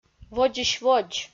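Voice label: "водзысь-водз", Komi, female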